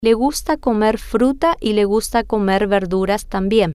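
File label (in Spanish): Le Gusta Comer Fruta Verduras Tambien, spanish, female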